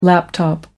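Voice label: "laptop computer", British English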